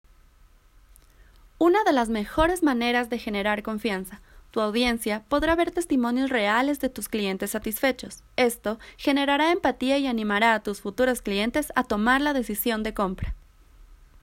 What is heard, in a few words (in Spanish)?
Testimonio